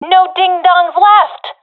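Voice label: enemies left none, professional female; vo; Counter Strike Model Pack; CS:GO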